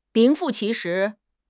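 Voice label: "名副其实", Mandarin Chinese; HSK6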